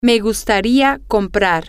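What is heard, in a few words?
Spanish, female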